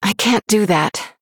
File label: Female Human Error; Jaina